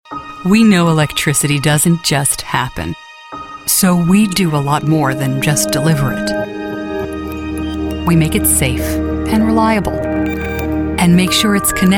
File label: commercial